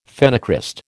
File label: "phenocryst", American